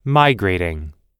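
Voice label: "migrating", American English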